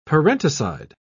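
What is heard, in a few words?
"parenticide", British English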